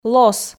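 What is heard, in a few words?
"лос", Komi, female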